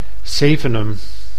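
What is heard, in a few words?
"Sevenum", Dutch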